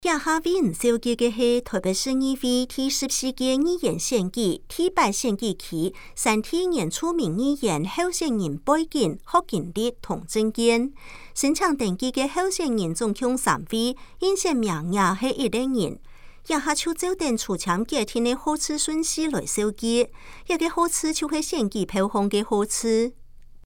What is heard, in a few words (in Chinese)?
客語; 臺北市議會第14屆議員第8選舉區 (山地原住民)候選人介紹; 有聲公報